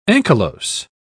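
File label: "ankylose", British English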